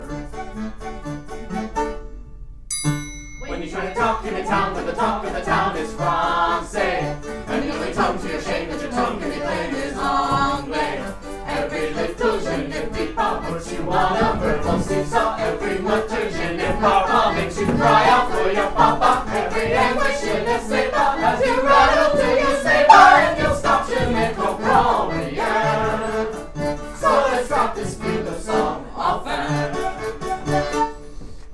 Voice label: speaking french